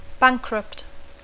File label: "bankrupt", English; adjectiu; insolvent